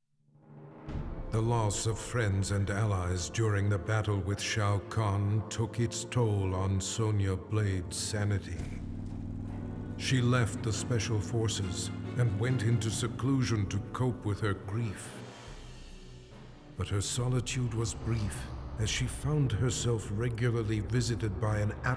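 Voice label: music voice-over endings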